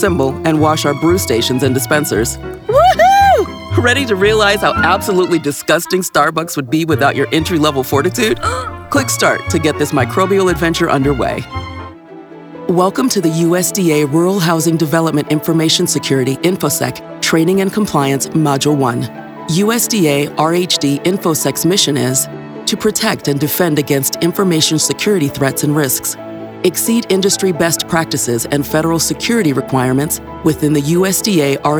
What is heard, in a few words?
e-learning